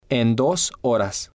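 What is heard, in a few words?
"en dos horas", Spanish, male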